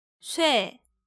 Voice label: "swe", Korean